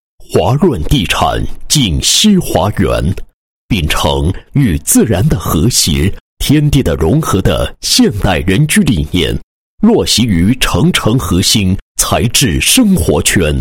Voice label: 男 房地产广告 宣传广告; 璟熙华园

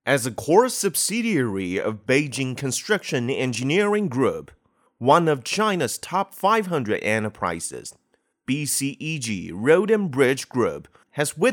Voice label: 英男 企业宣传片; BCEG

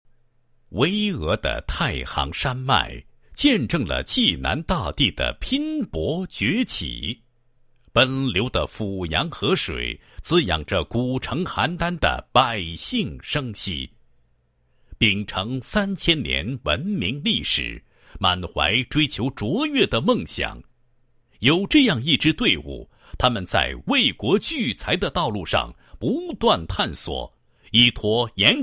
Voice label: 男 党建; 邯郸市国税局党建

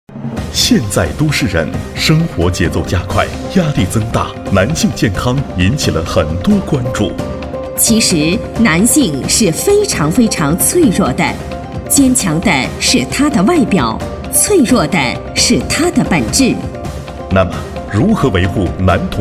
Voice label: 男 医院男科 广告; 燕竹医院

